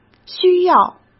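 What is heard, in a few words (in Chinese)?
"需要", Mandarin Chinese; chinese flashcards; hsk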